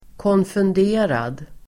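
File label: "konfunderad", Swedish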